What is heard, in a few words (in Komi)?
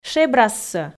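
"шебрассӧ", Komi, female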